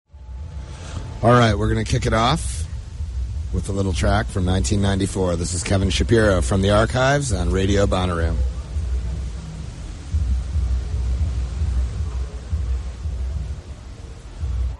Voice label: radio banter; Bonnaroo